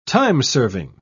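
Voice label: "timeserving", British English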